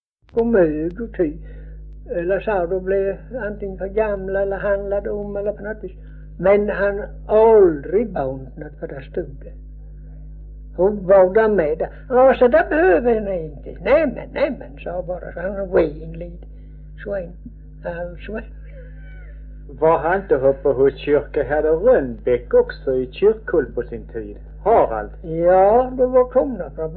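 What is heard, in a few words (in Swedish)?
Örkened Skåne, svenska sagor dialekter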